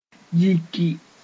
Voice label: "yiki", Mooré